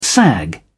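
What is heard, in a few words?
"sag", British English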